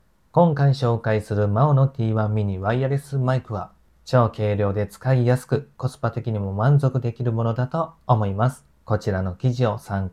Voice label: maono wireless microphone